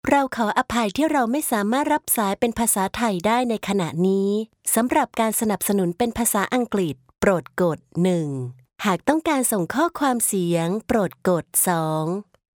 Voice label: thai female ivr